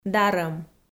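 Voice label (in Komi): "дарӧм", Komi, female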